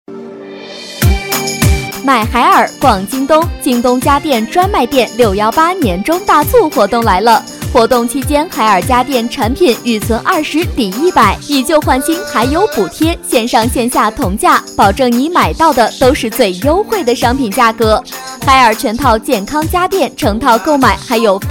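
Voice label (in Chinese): 女促销; 京东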